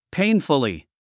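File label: "painfully", English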